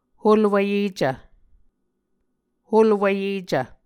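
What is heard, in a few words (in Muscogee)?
"holwvyecv", Muscogee word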